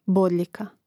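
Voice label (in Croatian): "bodljika", Hrvatski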